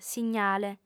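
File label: "signale", female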